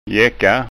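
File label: "jekka", Scanian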